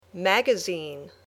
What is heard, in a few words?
"magazine", English